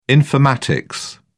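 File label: "informatics", British English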